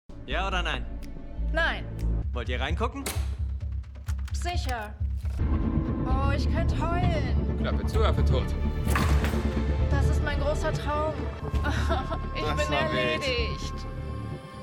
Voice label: Enttaeuscht

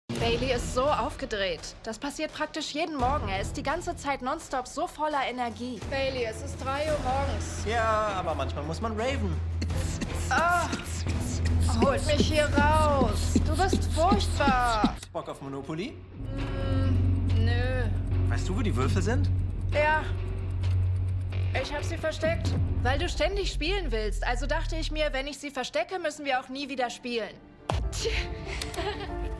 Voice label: Genervt Angeekelt

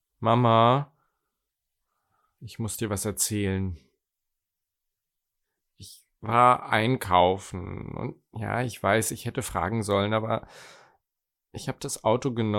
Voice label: Beichte Jugendlicher

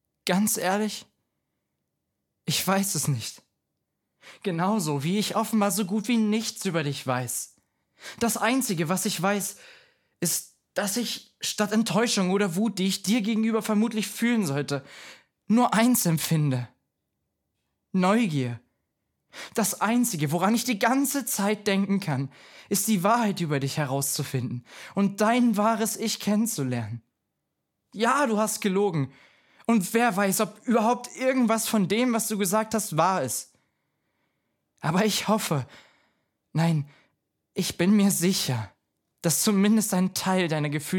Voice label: Junger Mann

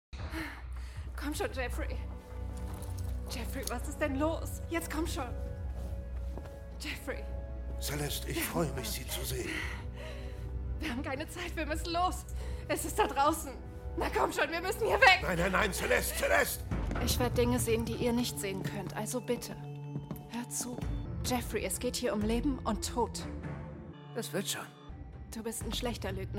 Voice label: Drama Liebe

